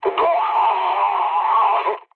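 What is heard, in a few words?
French damaged pilot mood med; War Thunder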